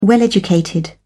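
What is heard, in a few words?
"well educated", British English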